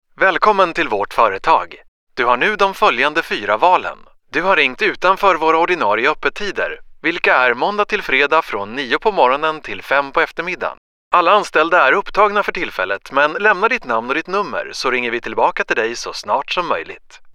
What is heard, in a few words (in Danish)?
svensk mand uformel